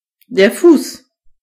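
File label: foot, German voices words; gcompris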